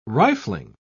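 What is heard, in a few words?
"rifling", British English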